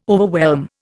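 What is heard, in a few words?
"overwhelm", Indian English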